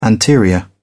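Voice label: "anterior", British English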